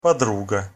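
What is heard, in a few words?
"podruga", Russian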